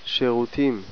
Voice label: "Sherutim", Hebrew